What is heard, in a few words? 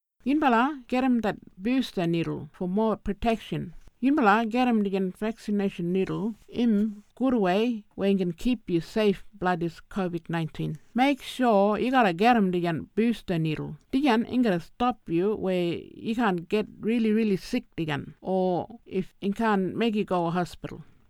booster es kriol